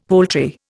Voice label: "poultry", Indian; Dictionary

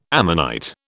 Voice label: "ammonite", British

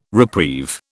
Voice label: "reprieve", British

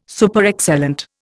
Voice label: "Superexcellent", Indian

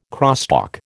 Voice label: "crosstalk", American

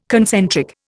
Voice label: "concentric", Indian

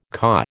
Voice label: "caw", American

